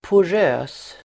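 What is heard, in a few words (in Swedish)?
"porös", Swedish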